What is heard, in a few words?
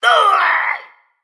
Male Mechagnome Death